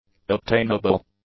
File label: "obtainable", english; adjectives